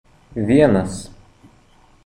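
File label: "One", Lithuanian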